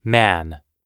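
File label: "man", English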